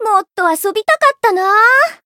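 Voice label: win, Japanese; bivette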